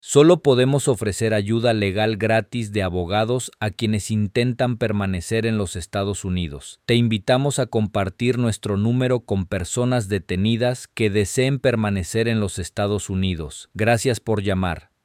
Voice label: Spanish